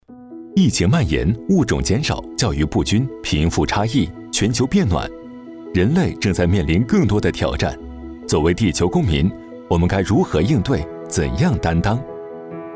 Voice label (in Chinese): 男 轻快解说